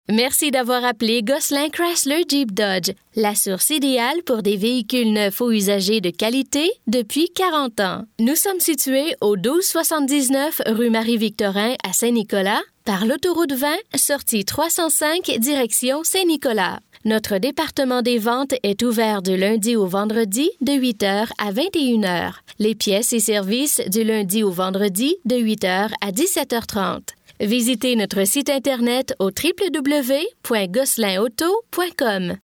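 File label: French Canada, female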